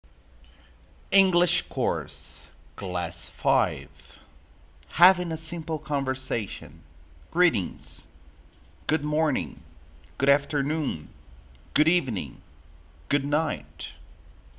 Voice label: Simple Conversation; english course